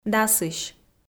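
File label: "дасысь", Komi, female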